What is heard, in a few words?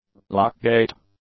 "lock gate", English; nouns